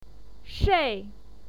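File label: "shei4", Mandarin Chinese pinyin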